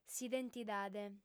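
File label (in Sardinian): "s'identidade", female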